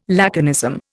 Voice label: "laconism", Indian